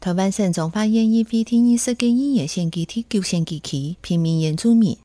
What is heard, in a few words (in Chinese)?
前言 客語; 彰化縣; 縣議員; 第九選舉區; 有聲公報